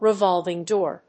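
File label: "revolving door", English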